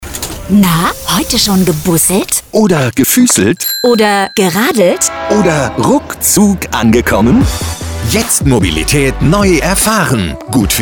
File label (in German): Funkspot